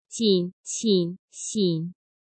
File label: jin qin xin 紧 寝 伈, Chinese Pinyin; J, Q, X Sound